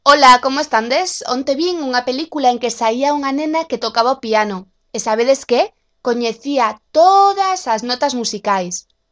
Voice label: locutora gallega; Galician voice overs

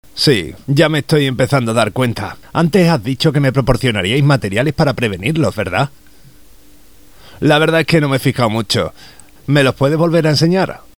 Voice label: locutor andaluz; Locutores andaluces

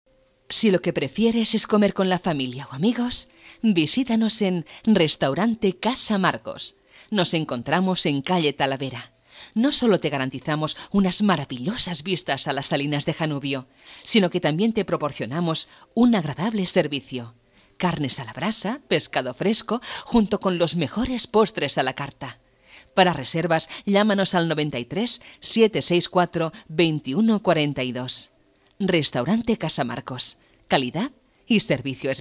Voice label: locutora spain

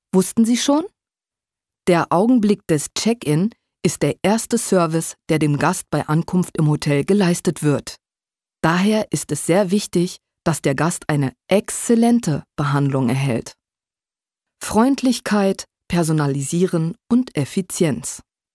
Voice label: locutora alemana

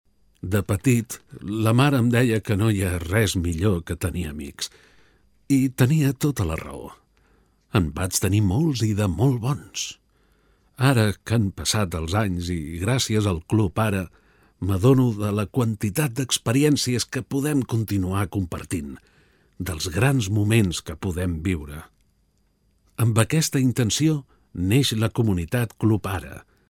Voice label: voz anciano locutor catalan

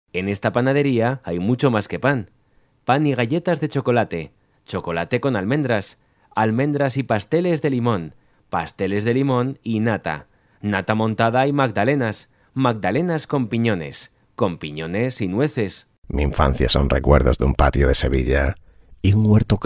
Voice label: curso educacion secundaria lengua; Lesson; language course.; Secondary level.; Spanish

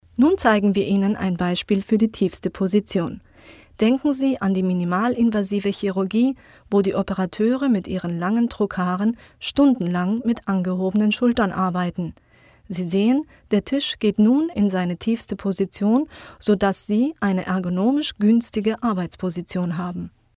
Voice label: locutora alemana

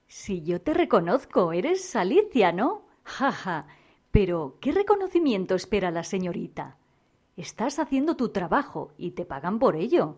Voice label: locutora spain

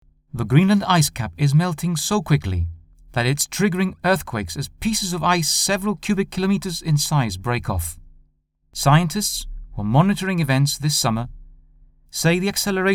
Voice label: locutor ingles; Italia

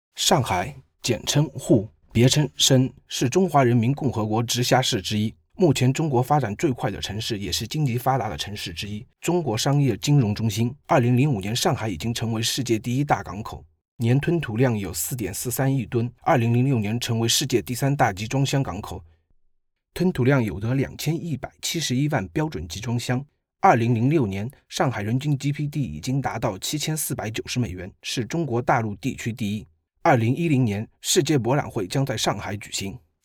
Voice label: locutor chino mandarin

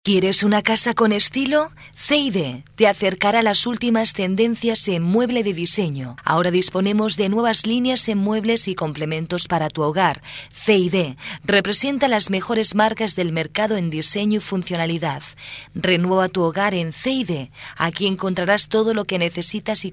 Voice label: locutora

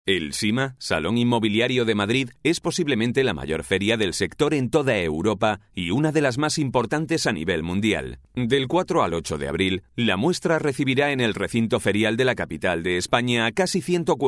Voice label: locucion; Promotional Madrid Real Estate Exhibition; Spot; Telemadrid

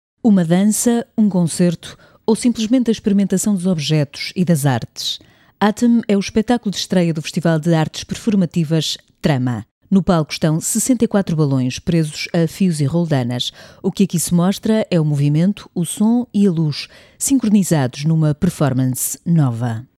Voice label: locutora portuguesa; speaker

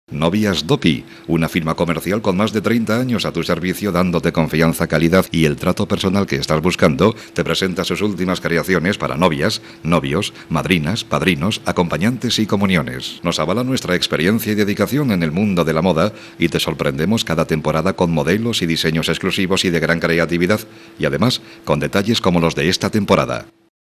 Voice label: locutor spain

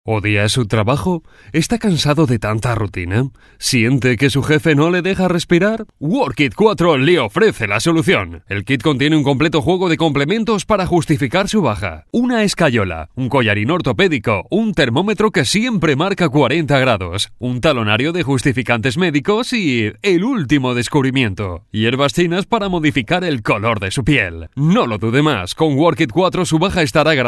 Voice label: teletienda, spots television

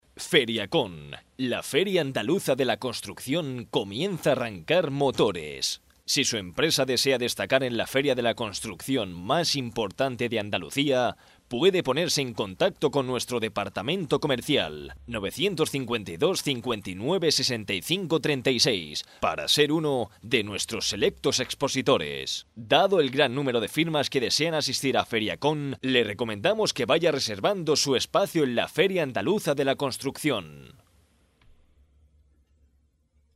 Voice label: locutor

